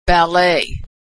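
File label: "ballet", American English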